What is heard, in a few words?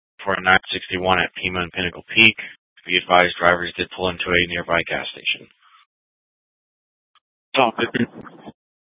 Dispatch; Scottsdale